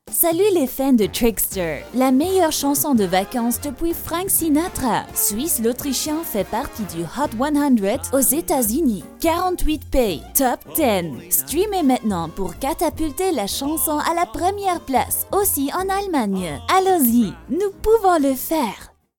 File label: french commercial